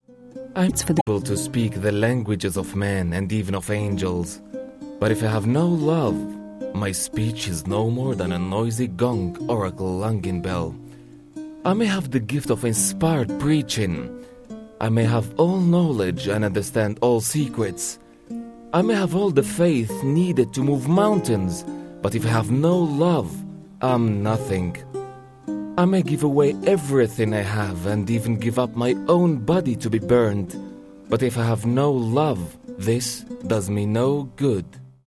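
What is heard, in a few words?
Arabic English; Arabic (Iraq), Middle Eastern, Male, 30s-50s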